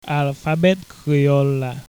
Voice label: alphabet Haitian Creole

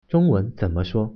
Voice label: how to say, mandarin

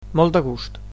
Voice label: "meet", Catalan

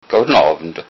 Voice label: good evening, low saxon

